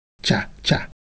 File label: Ewe Motion, ideophones